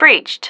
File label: "breached", female